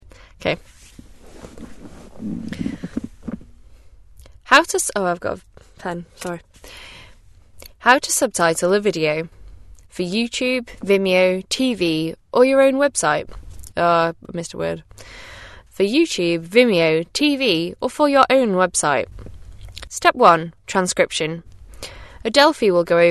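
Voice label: female raw audio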